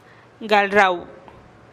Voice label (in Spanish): "gal rau" comer, teotitlan